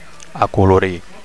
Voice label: "acolorir", Catalan; to colour (in) (Br.); to color (in) (Am.)

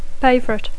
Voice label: "favorite", English; adjectiu; preferit; predilecte

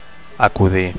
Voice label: "acudir", Catalan; to attend

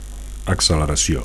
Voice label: "acceleracio", Catalan

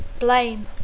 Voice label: "blame", English

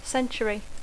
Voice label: "century", English

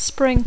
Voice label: "spring", English

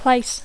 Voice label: "place", English

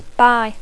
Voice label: "buy", English

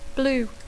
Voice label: "blue", English

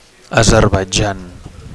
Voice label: "Azerbaitjan", Catalan

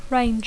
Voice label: "range", English

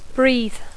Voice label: "breathe", English

